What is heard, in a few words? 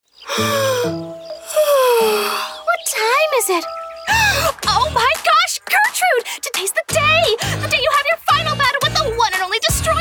animation